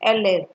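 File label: "helep", Nigerian Pidgin, female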